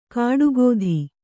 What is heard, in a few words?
"ಕಾಡುಗೋಧಿ", Kannada